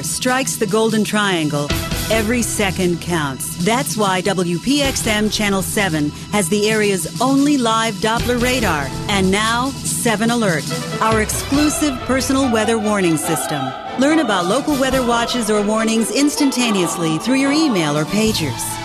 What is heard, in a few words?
News Promos